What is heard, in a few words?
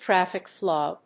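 "Traffic flow", English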